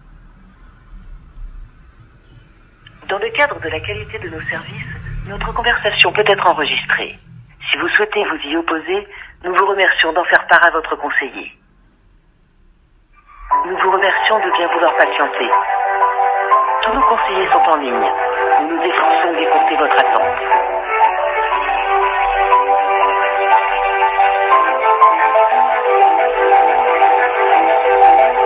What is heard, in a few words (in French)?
Test Appel Telephonique Du Conservateur